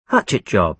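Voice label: "hatchet job", British English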